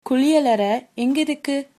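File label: toilet, Tamil, female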